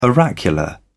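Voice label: "oracular", British English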